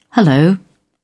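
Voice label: "hullo", English, uk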